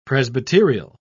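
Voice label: "presbyterial", British English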